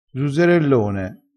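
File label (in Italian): "zuzzerellone", dialettale, crespinese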